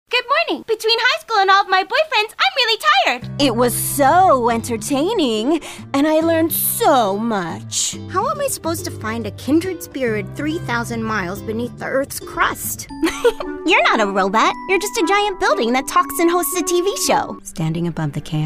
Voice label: animation demo